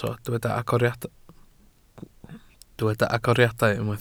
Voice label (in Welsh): "agoriadau", Welsh